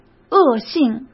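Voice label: "恶性", Mandarin Chinese; hsk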